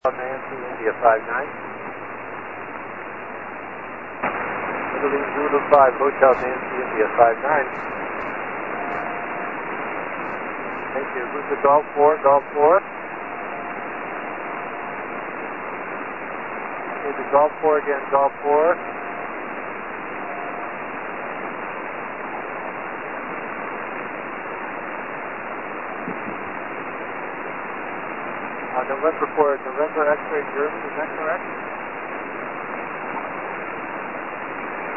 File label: 20 SSB